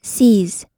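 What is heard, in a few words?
"sees", American English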